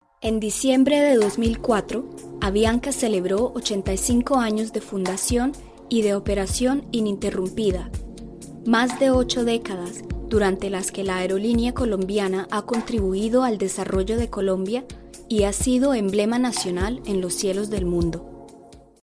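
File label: Latin American Spanish, Documentary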